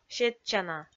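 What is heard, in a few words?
"сетчана", Komi, female; kpv audio dict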